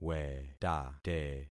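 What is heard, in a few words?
"wetate", concatenated syllables; Affixing experiment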